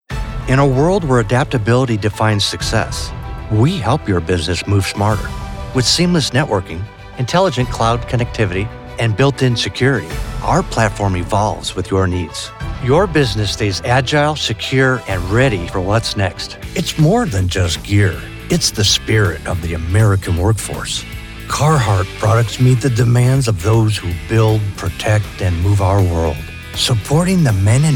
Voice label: corporate narration